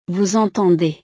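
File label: "vous entendez", French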